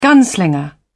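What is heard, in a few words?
"gunslinger", British English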